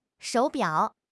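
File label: "shou3 biao3", Chinese; HSK; HSK2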